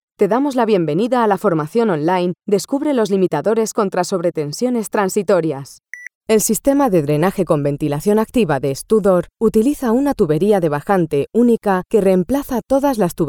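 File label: Elearning, Spanish, female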